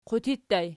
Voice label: "qutii", Archi

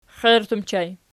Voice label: xiert ergpl, archi

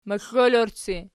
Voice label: "moxlolorci", Archi

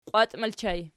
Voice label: "qiwat", Archi

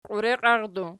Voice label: "qiureqiaqii", Archi

